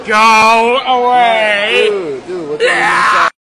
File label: go away screech